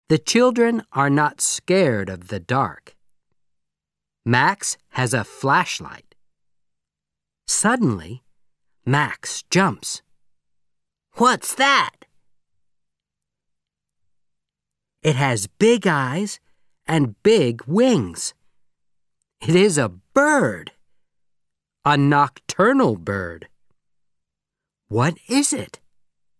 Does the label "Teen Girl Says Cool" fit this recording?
No